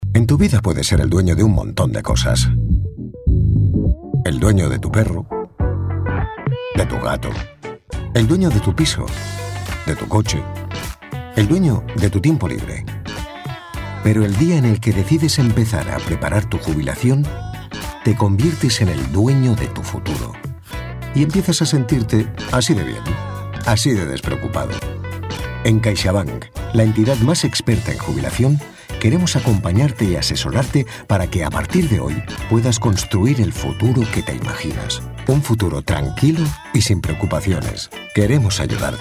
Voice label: male spanish bank comercial; ideasonora